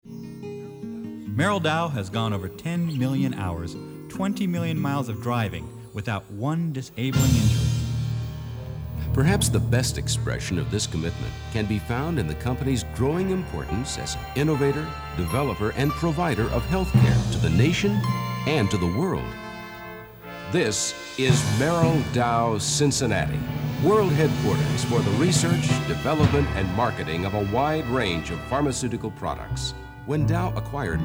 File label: Commercial